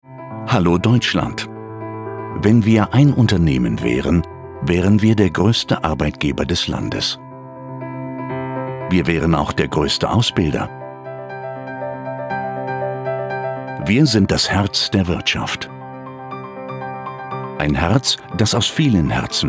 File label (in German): imagefilm Metall und Elektroindustrie